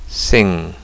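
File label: "sing", English; fonetica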